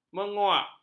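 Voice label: "menguak", Malay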